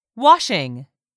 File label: "washing", English word; 3000 words